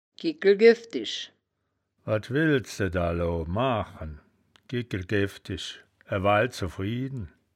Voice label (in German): "giegell gewdisch", wittlich